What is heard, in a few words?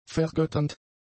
"vergötternd", German; adjectives